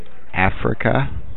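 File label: "Africa", American, male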